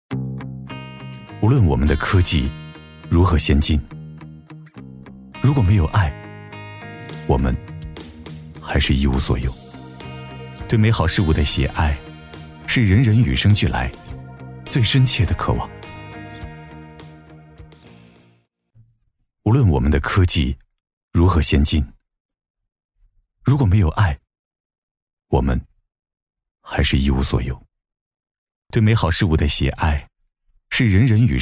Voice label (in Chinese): 男 科技宣传 科技唯美; 厚重有力; 高端大气